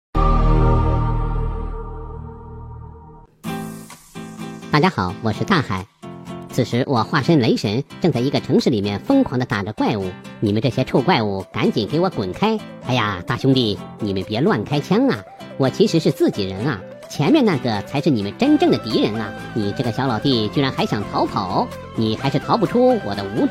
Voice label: 男 游戏解说

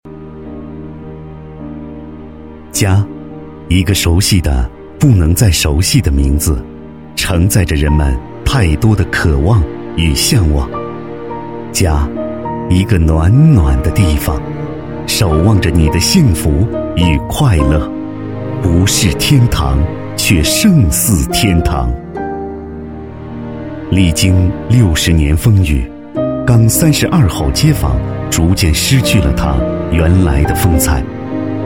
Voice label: 男 专题 深情磁性, 国语男声配音, 浑厚

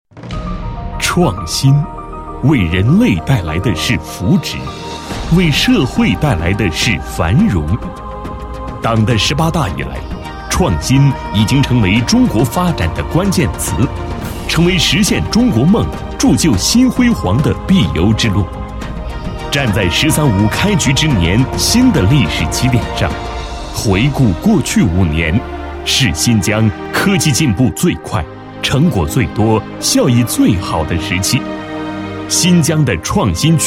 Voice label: 男 工作总结 十二五科技创新发展概览

